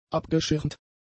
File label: "abgeschirmt", German; adjectives